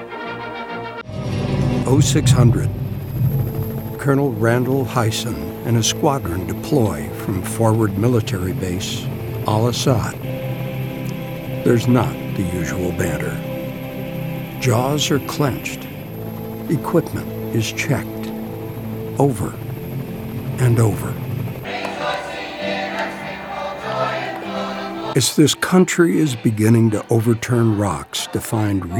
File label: TV Film Doc Narr